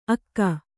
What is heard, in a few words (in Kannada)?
"ಅಗ", Kannada